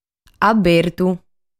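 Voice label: "Abertu", Sardinian word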